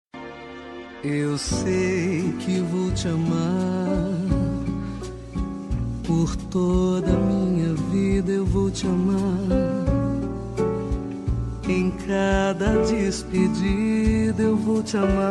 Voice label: esposa filhos, Dia das Mães para Esposa – Voz Masculina

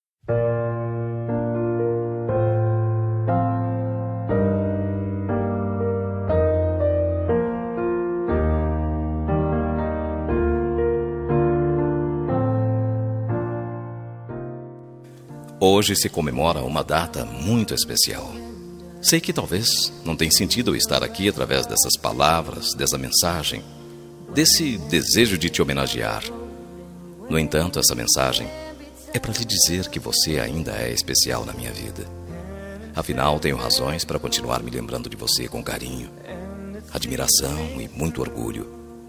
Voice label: dia dos namorados ex masculina; dia dos namorados para ex namorada voz masculina

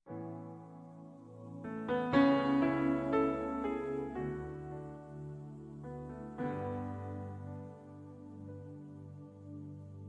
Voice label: amiga gospel, Aniversário de Amiga Gospel – Voz Feminina; Telemensagens para todas as ocasiões

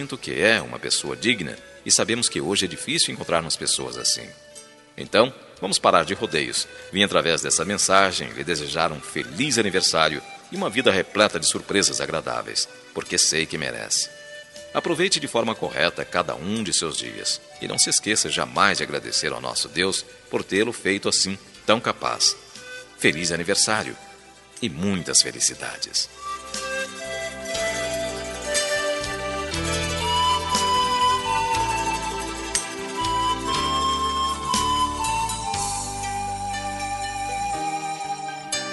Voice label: cunhado masc; Aniversário de Cunhado – Voz Masculina; Telemensagens para todas as ocasiões

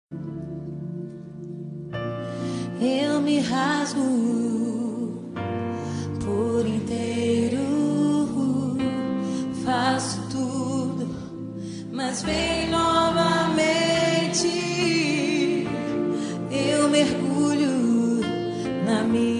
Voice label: pastor fem, Voz Feminina; Aniversário de Pastor; Telemensagens para todas as ocasiões

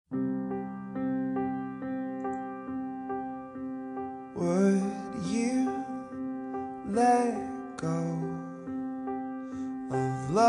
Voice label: dia maes namorada, Dia das Mães Romântica para Namorada – Voz Masculina

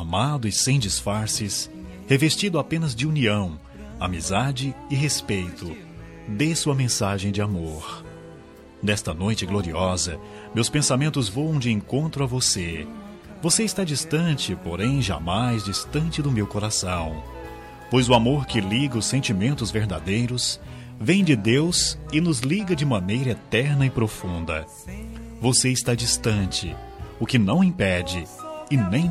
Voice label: natal neutra masculina; Natal Pessoa Especial – Voz Masculina

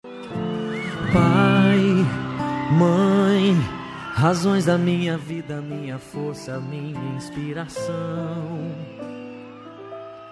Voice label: masc, Dia dos Pais – Voz Masculina

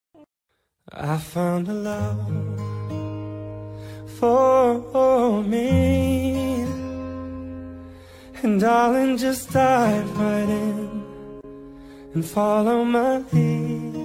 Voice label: dia dos namorados distante, voz masculina

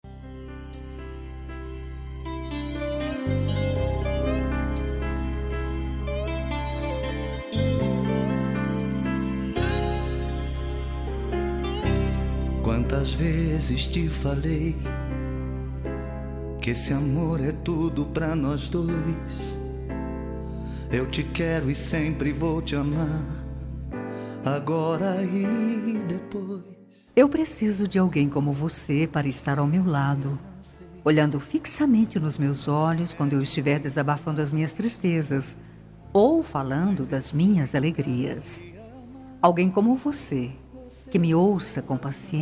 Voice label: amizade fem, Telemensagem Amizade – Voz Feminina; Telemensagens de Aniversário

